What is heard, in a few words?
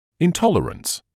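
"intolerance", British English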